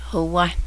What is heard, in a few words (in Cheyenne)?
animals, Cheyenne